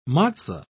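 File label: "matzo", British English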